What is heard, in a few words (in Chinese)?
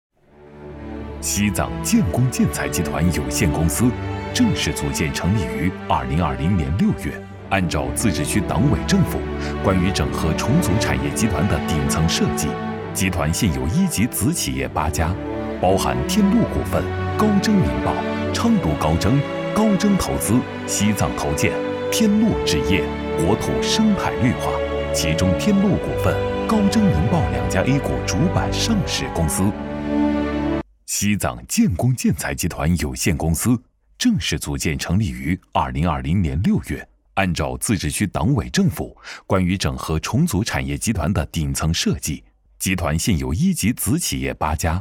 男 大气专题